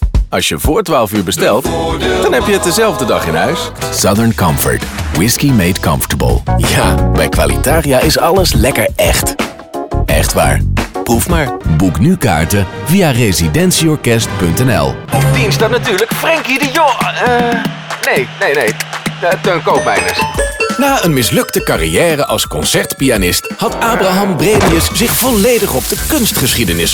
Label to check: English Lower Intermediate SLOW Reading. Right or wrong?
Wrong